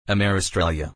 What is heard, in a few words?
Ameristralia Pronunciation